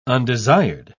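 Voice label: "undesired", British English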